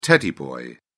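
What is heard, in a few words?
"teddy boy", British English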